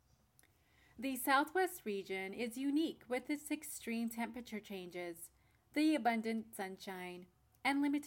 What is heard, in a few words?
testing voiceover landscaping; Southwest